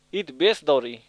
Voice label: Kurux; iid bees dau ra'i.; It's very good.